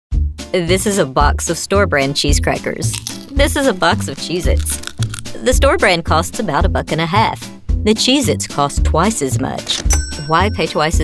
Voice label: Explainer